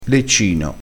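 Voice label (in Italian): "leccino", vocabolario dialettale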